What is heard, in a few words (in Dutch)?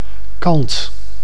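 "kant", Dutch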